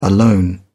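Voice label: "alone", British English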